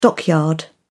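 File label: "dockyard", British English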